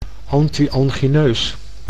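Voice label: "anti-angineus", Dutch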